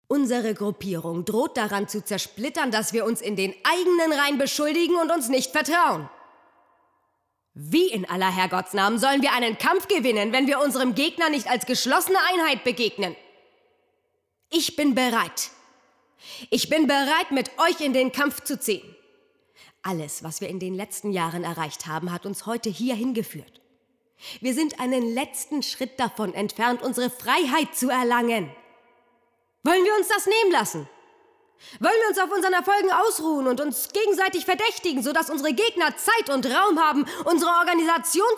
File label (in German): hoerspiel; revoluzzerin